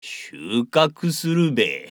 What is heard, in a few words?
japanese Male villager; age2